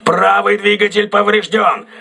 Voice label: voice message right engine damaged, crew ships russian